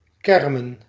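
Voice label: "kermn", Proto-Indo-European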